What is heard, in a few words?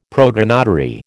"protonotary", American